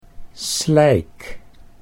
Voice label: "slijk", Dutch

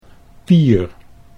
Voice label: "Pier", Dutch

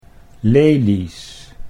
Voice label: "lelies", Dutch

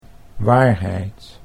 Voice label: "waarheid", Dutch

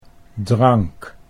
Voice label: "drank", Dutch

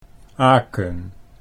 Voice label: "Aken", Dutch

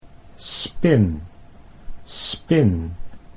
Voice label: "spin", Dutch

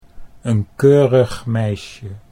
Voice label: een keurig meisje, Dutch

